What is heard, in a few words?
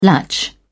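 "latch", British English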